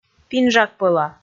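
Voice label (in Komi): "пинжак пӧла", Komi, male; audio dict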